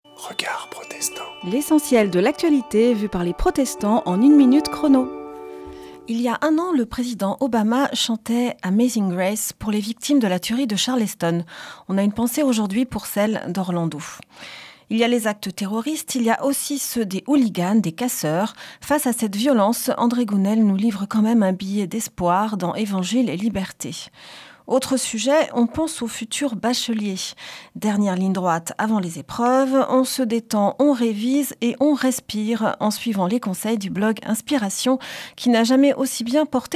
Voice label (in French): Spot radio; Regards protestants